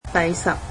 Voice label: "tenth", cantonese